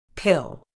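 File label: "pill", medical English, British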